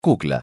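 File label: "κούκλα", Greek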